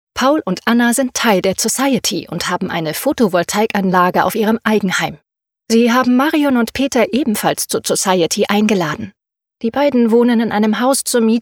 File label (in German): erklarfilm photovoltaik; Muttersprache Deutsch